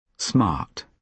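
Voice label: "smart" adjective, British English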